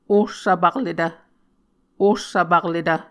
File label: "ohsvpakletv", Muscogee